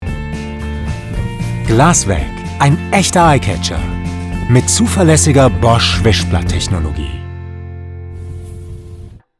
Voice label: werbung deutsch; bosch

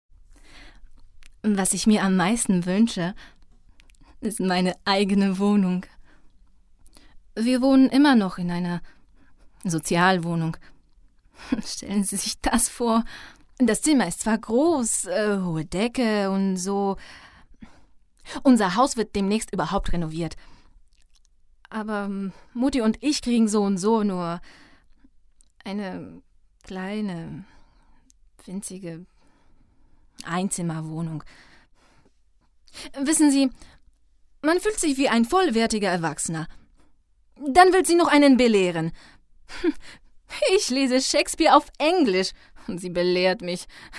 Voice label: kurzgeschichte deutsch

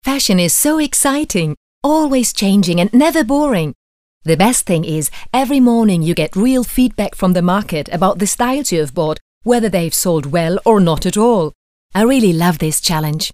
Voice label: englisch

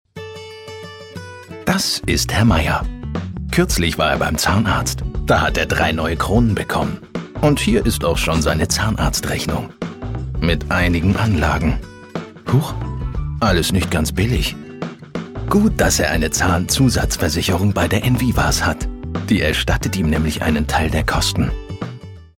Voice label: versicherung image; enivas

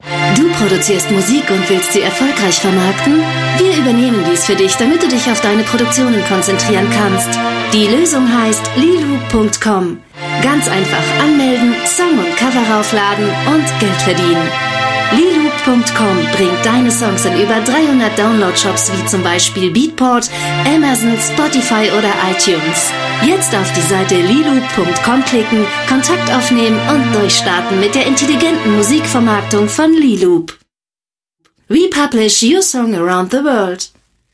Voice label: ansage mit background; leeloop

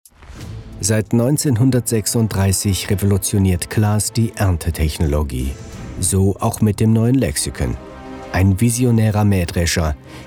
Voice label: Industriefilm

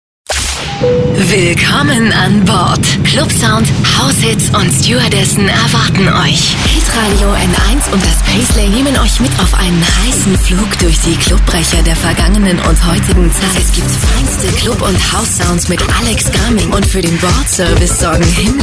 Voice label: funkspot

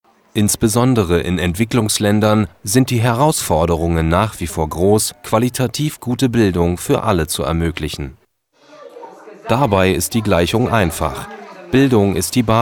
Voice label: sachtext kommentar trocken nachrichtenstil

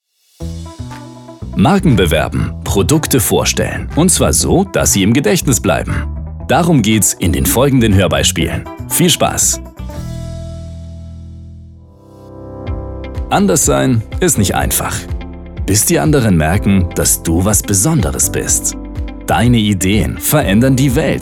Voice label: werblich

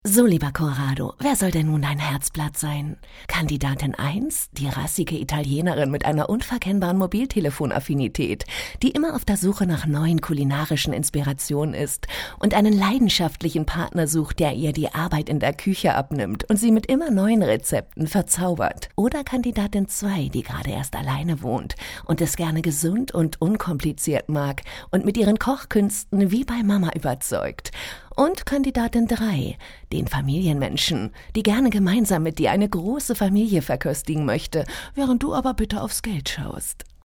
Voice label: werbung sexy sinnlich

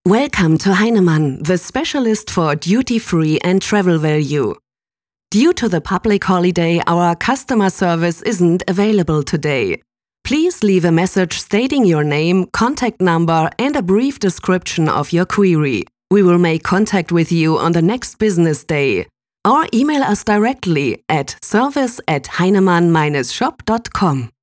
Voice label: telefonansage en; heinemann dutyfree

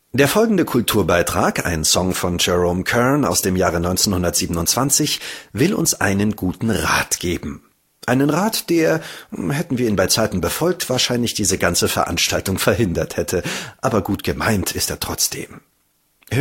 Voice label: Anmoderation

